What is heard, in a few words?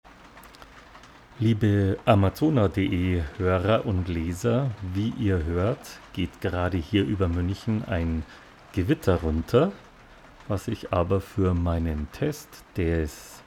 AEA R44C MIC B Voice